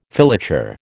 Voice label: "filature", American